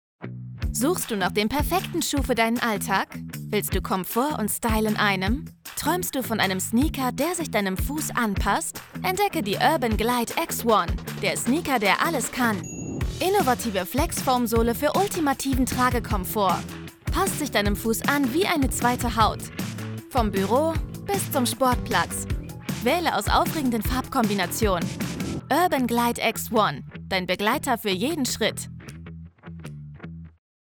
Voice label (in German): Hoerprobe Werbung Sneakers